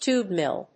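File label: "Tube mill", English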